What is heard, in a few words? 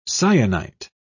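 "cyanite", British English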